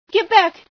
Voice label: female sci fear